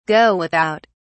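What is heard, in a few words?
"go without", British English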